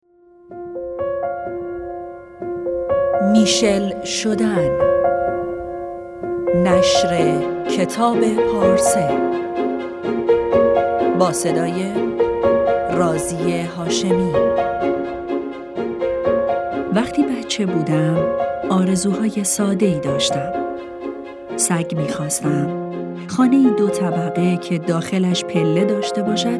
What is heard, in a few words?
announce